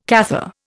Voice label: "Cathar", Indian